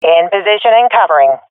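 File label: in position, swat female; Counter Strike Model Pack; CS:GO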